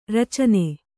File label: "ರಚನ", Kannada